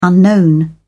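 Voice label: "unknown", English, British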